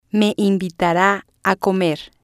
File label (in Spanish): "me Invitara A Comer", spanish